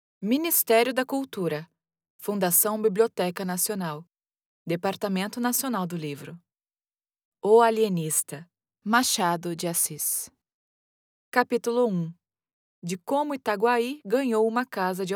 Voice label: audiobook narrator soft presence descriptive articulated female Brazilian